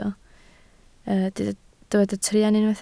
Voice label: "truan", Welsh